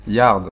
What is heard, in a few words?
Yards, French, male